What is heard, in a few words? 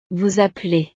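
"vous appelez", French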